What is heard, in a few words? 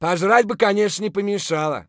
scav mutter, voice; Escape from Tarkov; scav2